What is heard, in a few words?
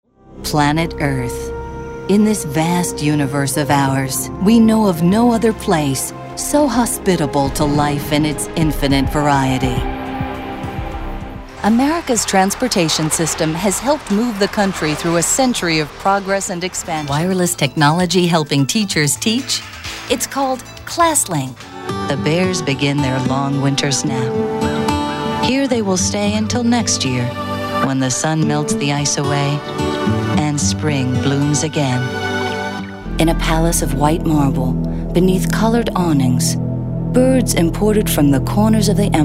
narration